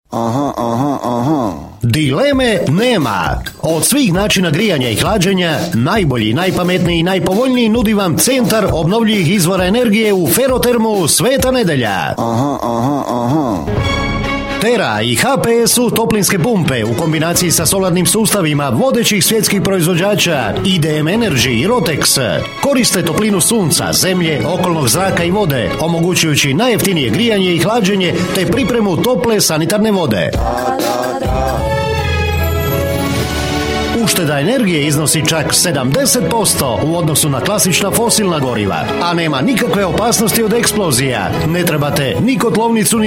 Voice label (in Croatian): reklama